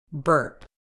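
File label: "burp", British English; bɜːp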